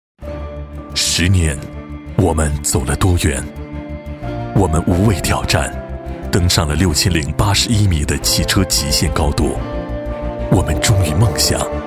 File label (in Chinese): 男60号 高端品牌; 大众途锐十周年; 交广主播